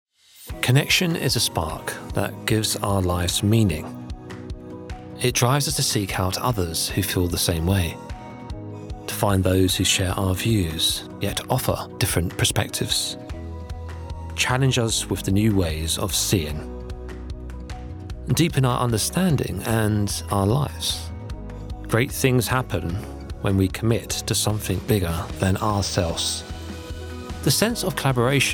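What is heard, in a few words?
English UK Male Corporate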